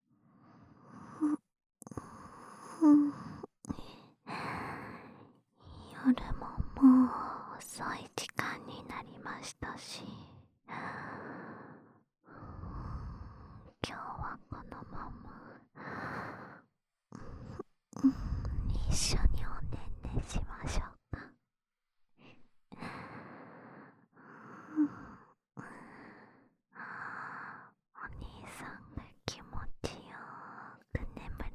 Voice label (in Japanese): 安眠用添い寝ループ（右耳）, ASMR; 一週間、おまんこ係 コンプリートパック